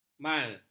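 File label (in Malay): "mal", Malay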